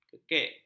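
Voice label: "kekek", Malay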